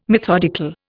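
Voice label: "methodical", English, Indian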